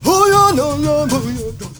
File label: male Zulu, male noise; Heart of Africa; Spectrasonics; Heart of Africa 1